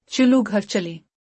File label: Let's go home, Urdu; Time and Routine